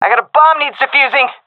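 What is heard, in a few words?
cover defuse, female; vo; Counter Strike Model Pack; CS:GO; seal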